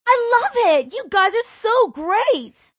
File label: Love It So Great, Radio, Female